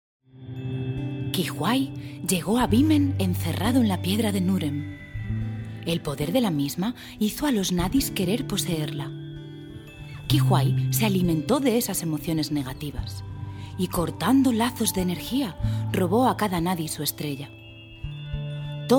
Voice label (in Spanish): Narracion; Los sacritas